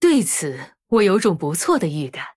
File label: Female Human Start, zh